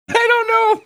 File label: saying i dont know in high pitched voice